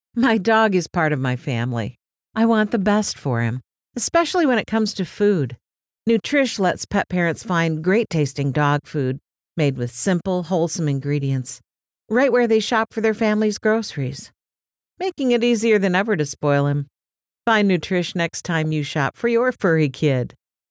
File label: English, vo